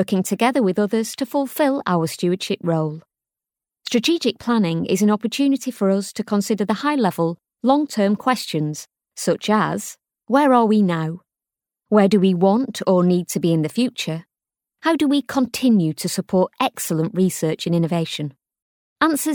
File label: Natural Corporate